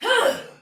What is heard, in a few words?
Attack, Soldier Female, Battle; Sinecure